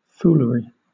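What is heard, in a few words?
"foolery" British English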